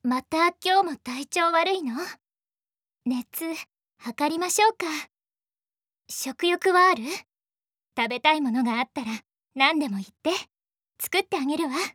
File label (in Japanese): 女子